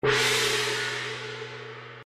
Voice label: announcement; Ming Imperial Guards